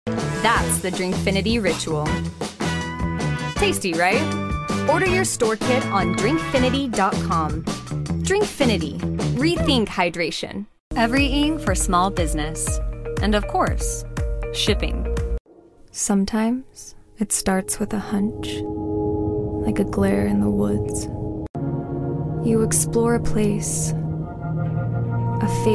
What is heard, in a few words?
Commercial VO